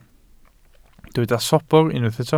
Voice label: "sobr", Welsh